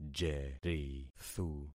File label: "jerithu", concat syllables; Affixing experiment